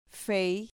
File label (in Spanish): "fei", Spanish syllable